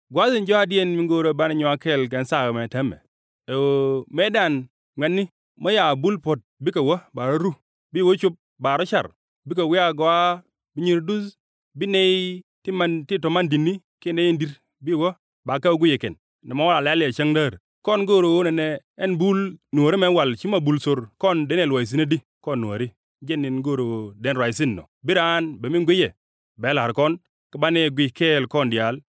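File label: Nuer, male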